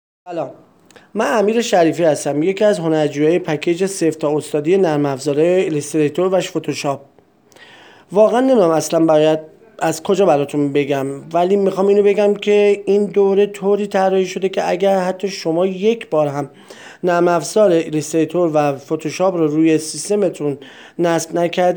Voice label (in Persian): صدای مشتریان راضی